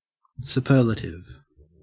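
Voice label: "superlative", Australian English; pronunciations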